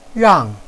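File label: "rang4", Chinese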